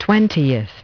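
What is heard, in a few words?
"twentieth", English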